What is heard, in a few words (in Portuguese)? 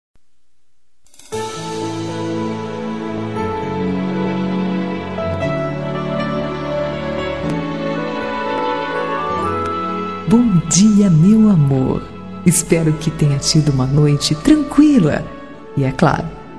ROM BOM DIA FEM